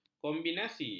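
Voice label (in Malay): "kombinasi", Malay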